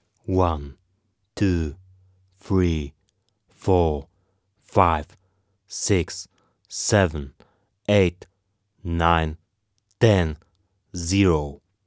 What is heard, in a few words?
Male Spell Numbers